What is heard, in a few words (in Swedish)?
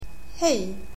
"hej", swedish, Greetings